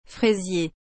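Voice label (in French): "fraisier", French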